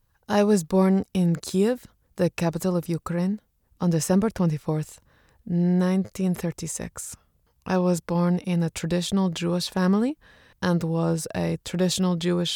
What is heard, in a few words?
english international tv shows